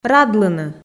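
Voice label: "радлыны", Komi, female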